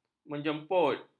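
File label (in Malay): "menjemput", Malay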